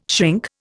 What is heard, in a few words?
"shrink", Indian